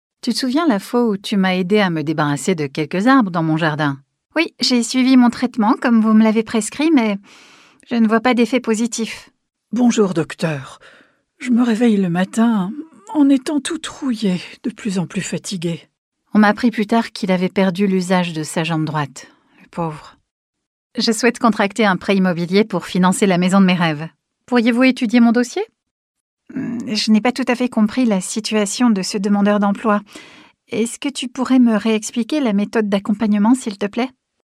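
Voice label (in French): elearning personnages, voix off femme; showreel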